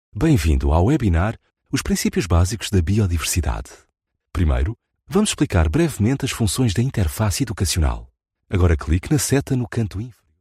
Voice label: pt elearning